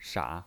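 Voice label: "sha", Mandarin Chinese pinyin, third tone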